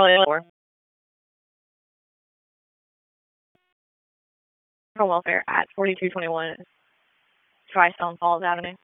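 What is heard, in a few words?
Raleigh Police Northeast District Dispatch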